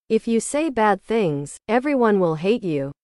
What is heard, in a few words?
english